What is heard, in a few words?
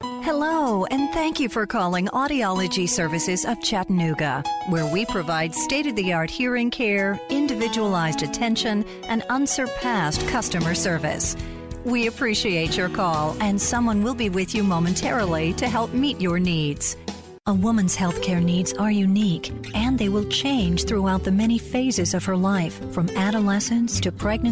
On Hold